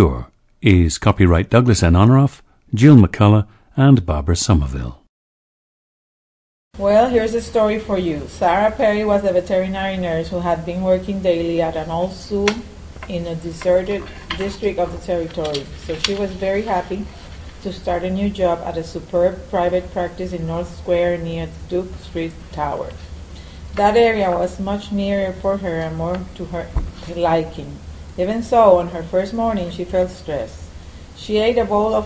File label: puerto rico